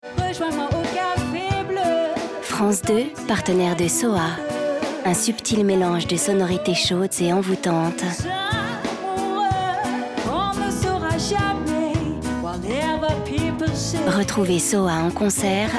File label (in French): SPOT